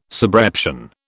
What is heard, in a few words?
"subreption", British